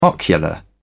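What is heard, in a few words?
"ocular", English, British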